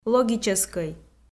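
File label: "логическӧй", Komi, female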